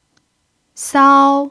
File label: "sao1", mandarin pinyin